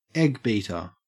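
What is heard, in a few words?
"eggbeater", Australian English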